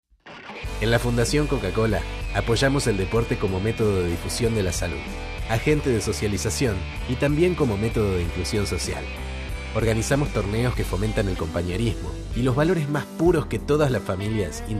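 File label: Demo Acento ARG, Argentine accent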